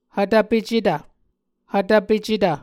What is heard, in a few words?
"hvtvpecetv", Muscogee